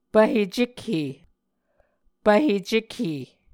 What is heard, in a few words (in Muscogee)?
"pvhe cekhe", Muscogee